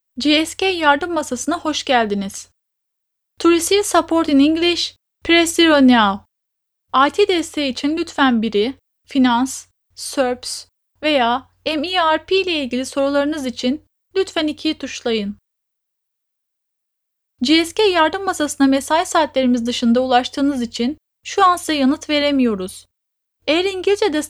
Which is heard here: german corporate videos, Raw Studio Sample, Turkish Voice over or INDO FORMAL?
Turkish Voice over